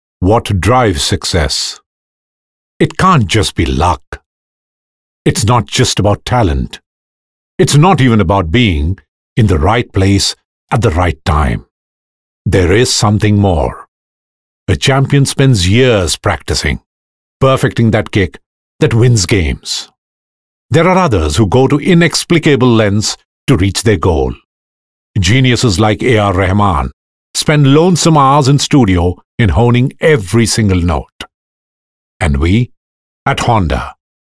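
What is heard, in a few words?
voice over sample English, male voice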